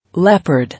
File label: "Leopard", English